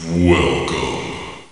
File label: evil welcome, spooky sounds